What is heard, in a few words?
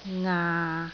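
"ngaa6", Cantonese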